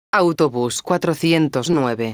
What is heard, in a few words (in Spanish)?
autobus 409, megafonias; exterior